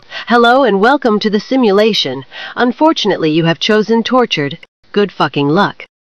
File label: tortured; sven; holo